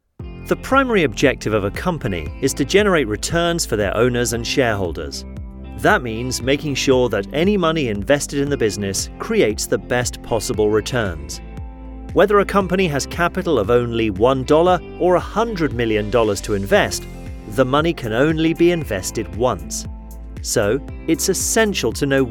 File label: Voiceovers UK Website showreel